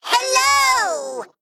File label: Male Mech Thanks